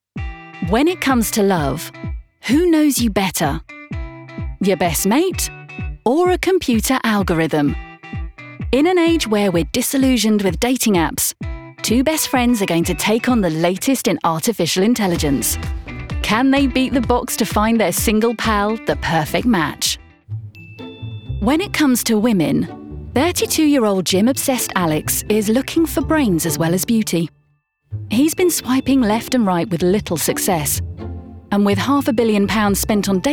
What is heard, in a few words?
Documentary